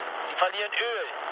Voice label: damaged oil, mood low, speech ge; War Thunder

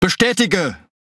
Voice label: Speech Quick Commands Aye Aye, DE; World Of Warships

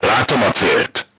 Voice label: voice message target lock, crew tanks hungarian

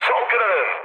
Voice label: left wing, mood med, speech tur; War Thunder

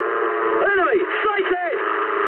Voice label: eng enemy detected mood high, speech eng; War Thunder

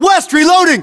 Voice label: US inform reloading, battlechatter, english

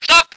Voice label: voice ger vehicle

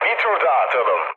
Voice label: bomb failure, mood low, speech tur; War Thunder

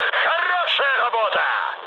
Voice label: player killed enemy, mood high, speech ru

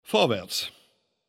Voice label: Neutral Hear It; Speech; Civ5; Bismark

